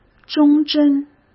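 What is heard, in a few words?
"忠贞", Mandarin Chinese; chinese-flashcards; hsk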